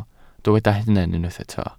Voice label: "hunan", Welsh